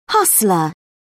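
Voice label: "hustler", British English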